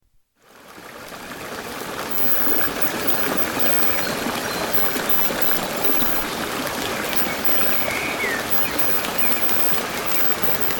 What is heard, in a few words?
healing rain affirmation